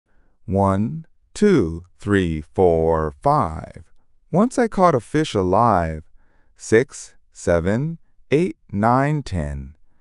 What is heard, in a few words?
male US; Fish Story